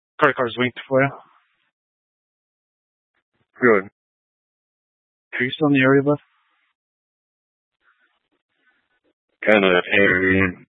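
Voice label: Sheriff Dispatch, Scanner audio recording archive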